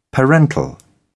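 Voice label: "parental", British English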